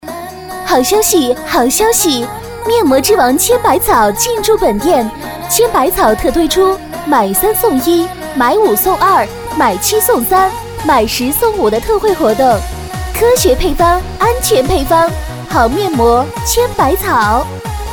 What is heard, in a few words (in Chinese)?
女 广告 激情促销, 国语女声配音; 面膜千百草